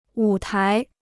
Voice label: "舞台", Chinese; wǔ tái; (lit. and fig.) stage; arena

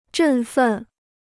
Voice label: "振奋", Chinese

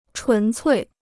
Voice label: "纯粹", Chinese

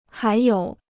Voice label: "还有", Chinese

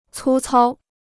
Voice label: "粗糙", Chinese

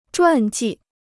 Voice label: "传记", Chinese

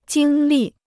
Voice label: "经历", Chinese

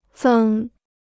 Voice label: "增", Chinese

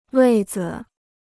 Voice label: "位子", Chinese

